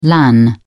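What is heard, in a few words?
"lan", British English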